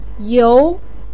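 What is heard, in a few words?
"you2" Chinese syllable, pinyin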